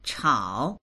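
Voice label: "chao3", Chinese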